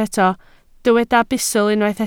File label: "bustl", Welsh